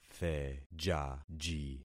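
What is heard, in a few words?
"thejaji", concatenated syllables; Affixing experiment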